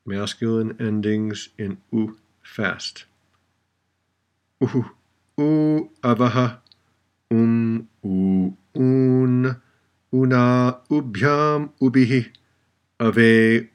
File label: Noun Endings Fast